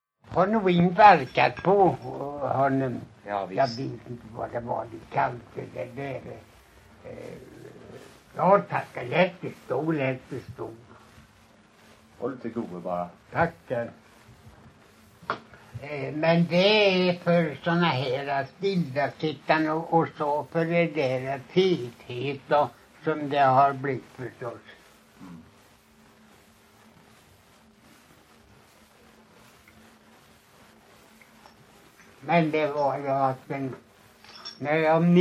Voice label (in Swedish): Lommaryd, dialekter; Folkminnen